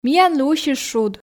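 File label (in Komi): миянлы усис шуд, Komi, female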